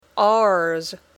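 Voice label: "ours", English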